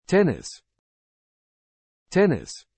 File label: "tennis", English